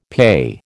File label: "PAYE", American